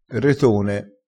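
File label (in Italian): "retone", dialettale, crespinese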